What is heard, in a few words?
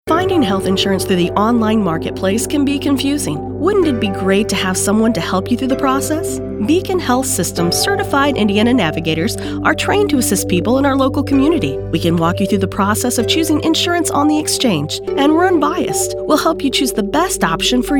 radio spot